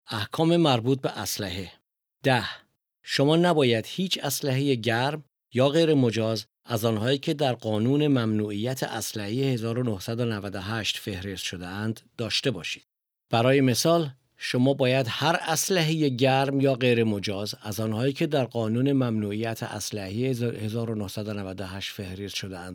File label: farsi; orders about weapons